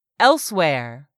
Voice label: "elsewhere", English; 3000 words

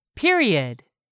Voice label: "period", English; 3000 words

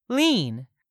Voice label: "LEAN", english; 3000 words

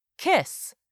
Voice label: "kiss", English; 3000 words

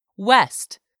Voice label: "west", English; 3000 words

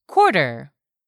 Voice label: "quarter", English; 3000 words

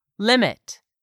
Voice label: "limit", English; 3000 words

